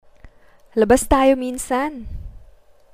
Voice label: labas tayo minsan, Tagalog; ask filipina for date